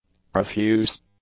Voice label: "profuse", english; adjectives